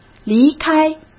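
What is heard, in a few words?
"离开", Mandarin Chinese; hsk; chinese-flashcards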